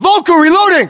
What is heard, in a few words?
US inform reloading, battlechatter, english